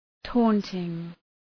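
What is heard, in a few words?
"taunting", English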